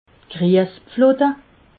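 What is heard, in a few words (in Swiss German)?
"griespflute", Alsatian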